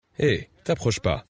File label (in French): hey t approche pas jungle pub francais; Hey AI Meme